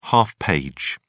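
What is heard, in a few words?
"half page", British English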